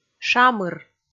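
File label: "шамыр", Komi, female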